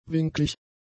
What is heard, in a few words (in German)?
"winklig", German; adjectives